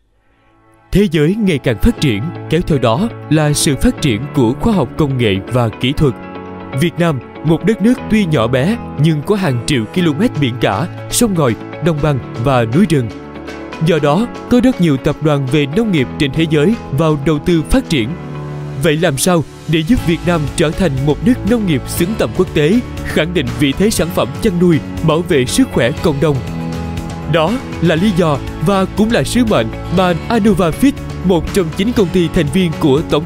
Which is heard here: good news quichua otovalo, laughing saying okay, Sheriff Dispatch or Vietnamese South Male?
Vietnamese South Male